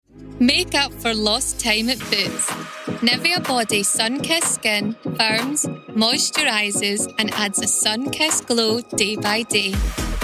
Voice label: Commercial; Boots

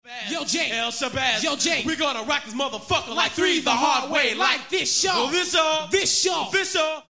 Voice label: Vocals

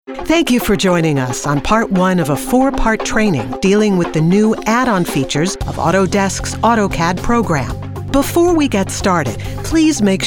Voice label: Corporate E Learning

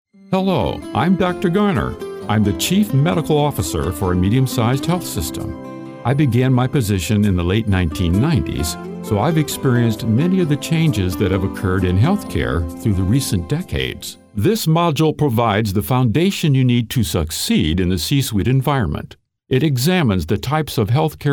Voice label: E-Learning

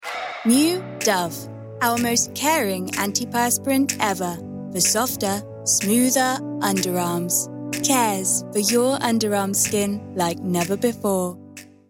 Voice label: Commercial; Dove

